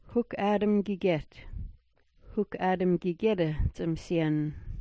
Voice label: "hukaadm gyigyet", smalgyax